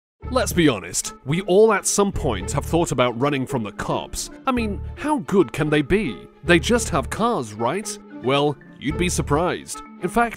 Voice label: Narration, en-US